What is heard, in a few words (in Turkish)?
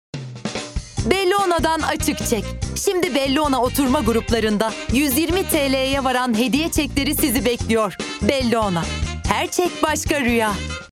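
kampanya, seslendirme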